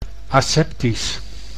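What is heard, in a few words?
"aseptisch", Dutch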